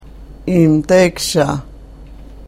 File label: karuk